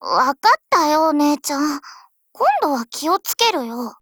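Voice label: fix, Japanese; 97type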